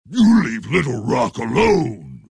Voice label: tiny anger; dota